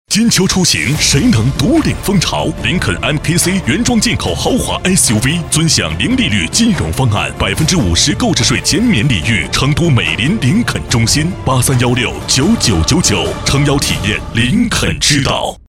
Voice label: 男60号 广告; 林肯MKC; 交广主播

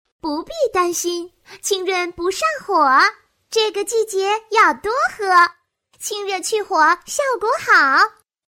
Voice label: 女4号 女童, 国语女声配音

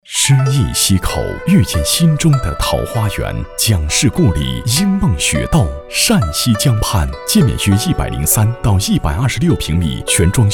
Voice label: 男 优雅广告; 恒大溪上桃花源

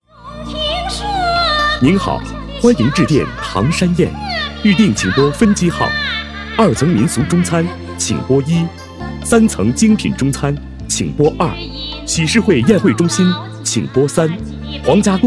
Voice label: 男 彩铃; 唐山宴

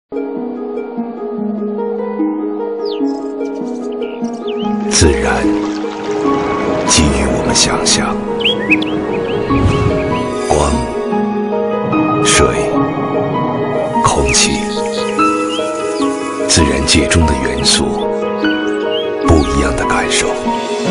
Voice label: 男 品质广告 手机广告 磁性大气; 努比亚

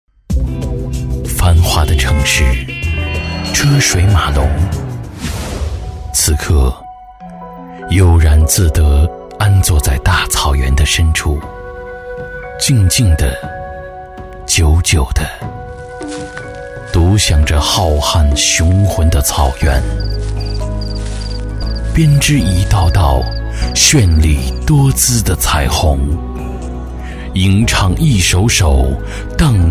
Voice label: 男 片花 草原情, 浑厚大气; 国语男声配音; 敕勒川草原情

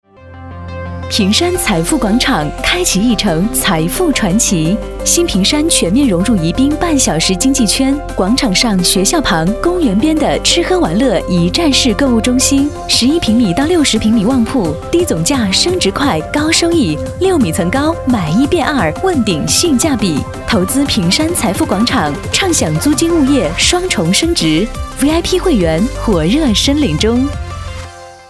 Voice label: 女, 广告, 温润; 财富广场

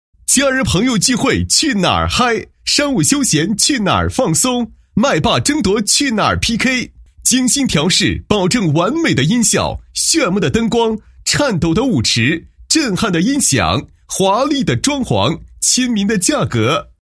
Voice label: 男 宣传广告; 新凯龙自助式KTV广告宣传词

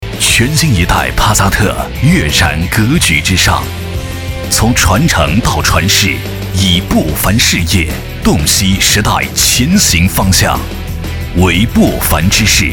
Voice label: 男 浑厚; 上海大众; 浑厚专题

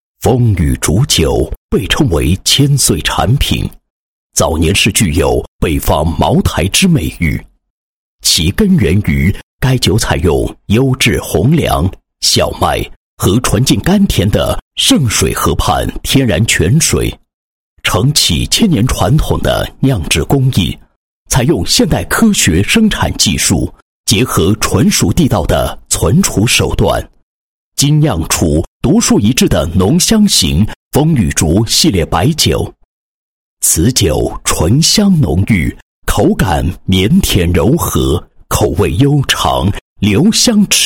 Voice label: 男 宣传广告; 茶酒; 风雨竹酒宣传广告

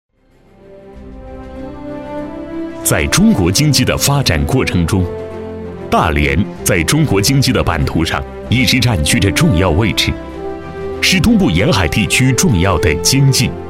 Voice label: 男, 专题, 企业专题; 大连户谷公司

